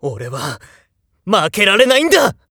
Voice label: nekketsu before

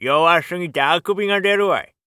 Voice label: oldman win

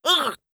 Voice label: oldman damage, voice

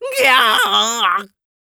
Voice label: oldman down, voice

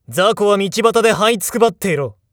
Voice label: jakigan win, voice

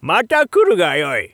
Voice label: shop oldman, voice

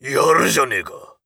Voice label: macho damage

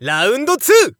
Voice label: Narration ROUND

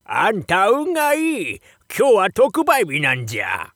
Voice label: shop oldman, voice

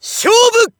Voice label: Narration Fight; SAMURAI

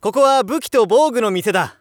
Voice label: shop youngman; bukibougu

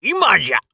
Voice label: oldman attack

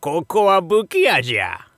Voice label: shop oldman; buki

